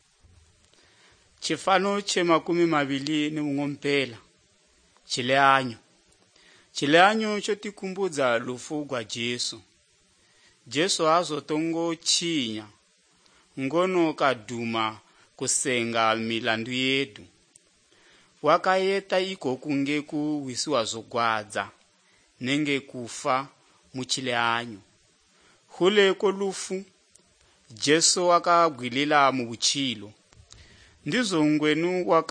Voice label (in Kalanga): good news kalanga lilima, drama